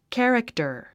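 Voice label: "character", English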